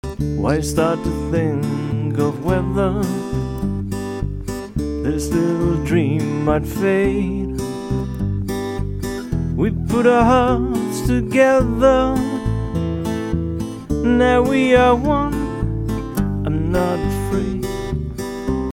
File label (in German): mic dry, weichen bariton